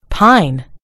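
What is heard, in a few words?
"pine", British English; gcompris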